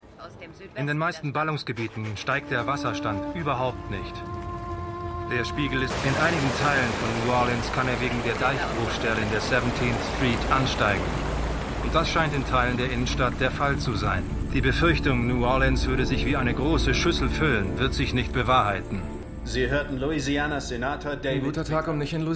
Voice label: Nachrichtensprecher; Senator David Witter; Memorial Hospital; MEMORIAL HOSPITAL - DIE TAGE NACH HURRIKAN KATRINA (DF 2022); Five Days at Memorial

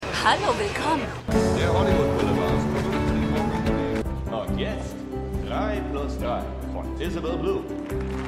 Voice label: Lehrerin Tourfuehrer Ansager; Liebe Zu Besuch

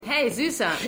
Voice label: Junge Frau; Stimmproben

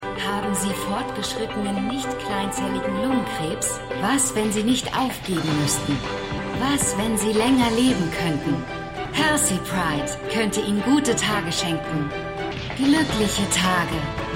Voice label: Werbesprecherin; AtlantaMedical

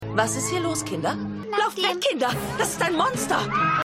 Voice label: Lehrerin

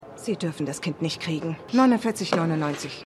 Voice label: Kassiererin; Everything's Trash

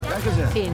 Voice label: Mann Stadion

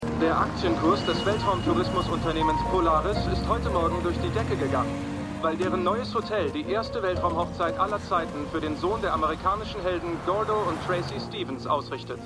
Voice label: Radiosprecher; Stimmproben; For All Mankind

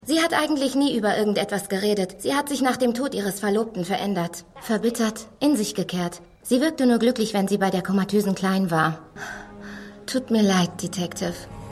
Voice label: Krankenschwester, Stimmproben; The Flash

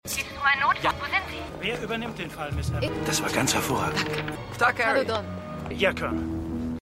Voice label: Telefonstimme Reporter; WblTelefonstimme; StvStaatsanwalt; Outer Limits

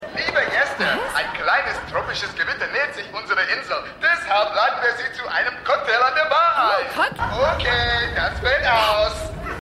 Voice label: Durchsage; Die Nanny

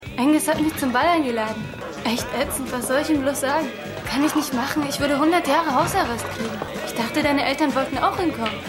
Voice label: Maedchen; Ausgerechnet Alaska

